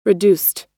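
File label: "reduced", US English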